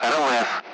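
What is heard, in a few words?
"radar", Russian; Allied Flight; DCS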